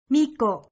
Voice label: "Miko", Japanese

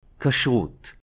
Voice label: "Kashrut", Hebrew

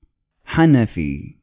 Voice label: "Hanafi", Arabic

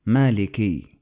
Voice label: "Maliki", Arabic

